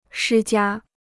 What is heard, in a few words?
"施加", Chinese